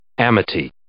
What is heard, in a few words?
"amity", English